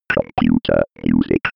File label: computer voice